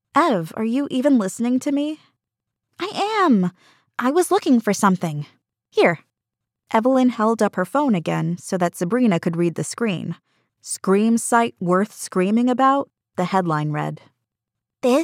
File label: Middle Grade 3rd person